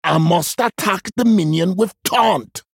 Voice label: male troll error taunt

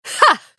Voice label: female blood elf attack

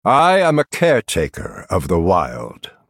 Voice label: male night elf picked

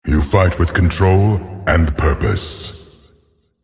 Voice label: beast well played, male

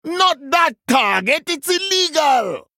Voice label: male troll error target

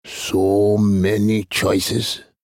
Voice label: male troll thinking

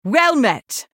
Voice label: female blood elf greetings

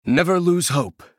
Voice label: male human emote

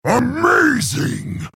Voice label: male orc wow

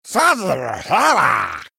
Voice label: male murloc picked

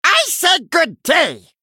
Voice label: male murloc attack

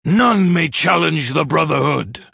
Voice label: male human start

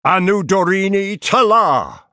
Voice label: male night elf attack

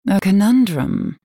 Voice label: female night elf thinking

